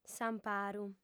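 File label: "s'amparu", female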